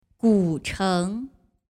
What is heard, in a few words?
"Gucheng", Chinese